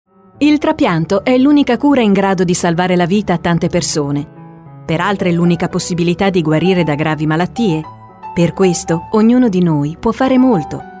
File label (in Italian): Spot radio donazione organi